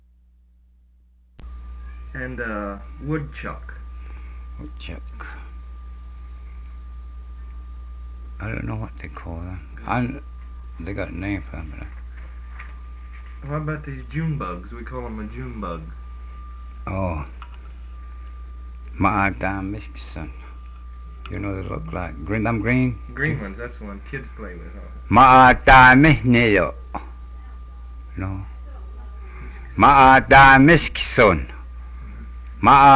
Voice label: Cheyenne